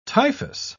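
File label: "typhous", British English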